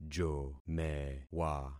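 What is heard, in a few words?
"jomewa", concatenated syllables; Affixing experiment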